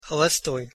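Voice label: "holostoj", Russian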